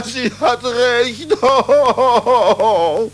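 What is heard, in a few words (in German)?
"sie hat recht", German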